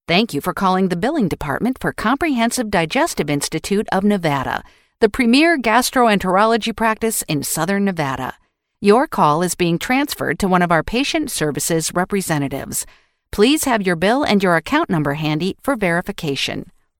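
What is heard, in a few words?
Telephone Switchboard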